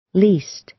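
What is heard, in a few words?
"least", American English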